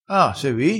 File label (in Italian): "riscappa", dialettale, crespinese